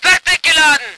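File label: voice german vehicle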